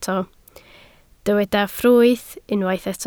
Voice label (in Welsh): "ffrwyth", Welsh